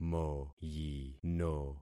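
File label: "moyino", concatenated syllables; Affixing experiment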